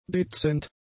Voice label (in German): "dezent", german; adjectives